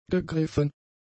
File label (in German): "gegriffen", german; adjectives